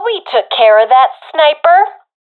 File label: sees sniper killed, professional fem; vo; Counter Strike Model Pack; CS:GO